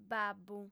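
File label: "babbu", female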